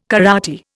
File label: "karate", Indian